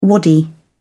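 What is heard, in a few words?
"wadi", British English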